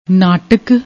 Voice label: "naatak", Punjabi; ਨਾਟਕ